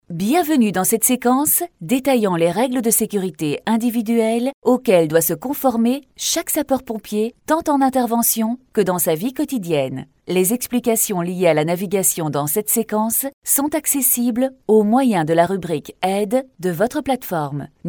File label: French France